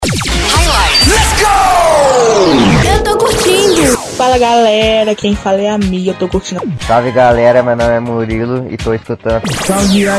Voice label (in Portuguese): viva voz chamada